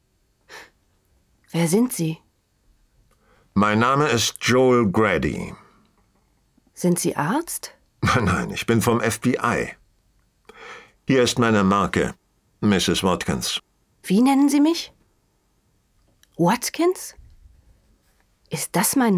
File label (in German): hoerspiel